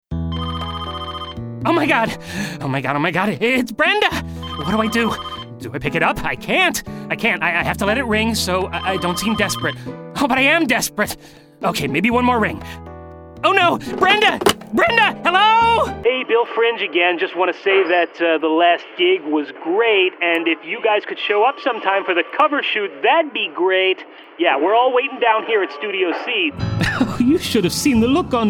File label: Animation